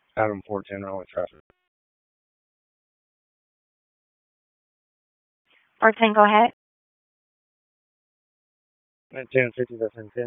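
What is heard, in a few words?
Police Southeast District Dispatch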